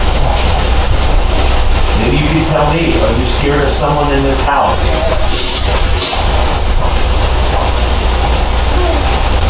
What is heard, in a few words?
Young child answers "Yes" to a question; scared